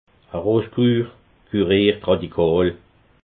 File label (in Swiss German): "rosskur", alsace